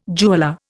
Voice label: "jeweller", Indian